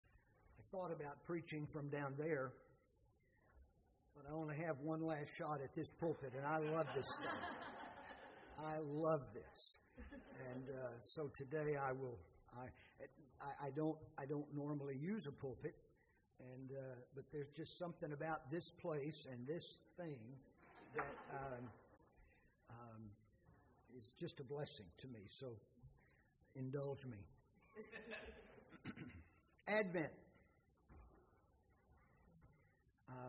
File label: sermon